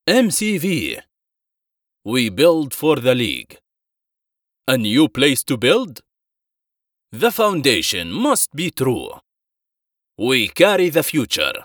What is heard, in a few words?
arabic others